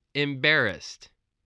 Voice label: "embarrassed", spelling